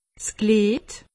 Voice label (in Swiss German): "glied", alsace; medecine